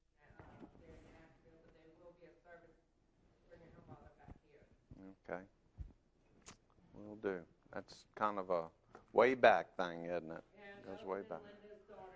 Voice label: English